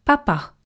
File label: "papa" French; vowels